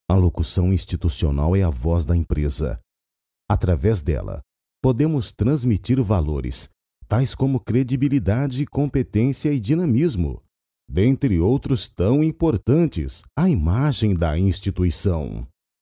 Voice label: brazilian male voiceover locutor brasil, locutor nativo de Brasil